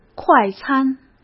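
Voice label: "快餐", Mandarin Chinese; hsk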